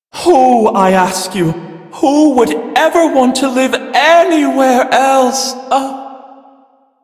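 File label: Male Brisk en, ambient dialog; Warframe; Duviri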